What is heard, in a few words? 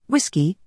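"w", British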